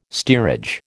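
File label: "steerage", American English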